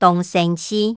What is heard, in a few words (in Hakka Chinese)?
"當生趣", 客語